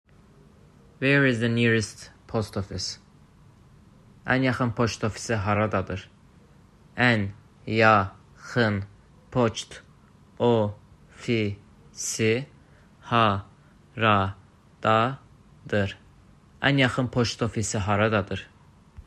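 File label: Where is the nearest post office? Ən yaxın poçt ofisi haradadır?, azerbaijani; azerbaijani phrasebook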